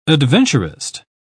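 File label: "adventurist", British English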